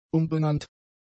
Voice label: "umbenannt", German; adjectives

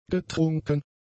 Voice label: "getrunken", german; adjectives

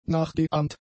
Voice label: "nachgeahmt", German; adjectives

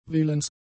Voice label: "willens", German; adjectives

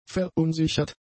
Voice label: "verunsichert", German; adjectives

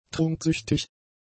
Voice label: "trunksüchtig", German; adjectives

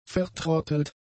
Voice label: "vertrottelt", German; adjectives

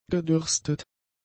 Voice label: "gedürstet", German; adjectives

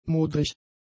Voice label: "modrig", German; adjectives

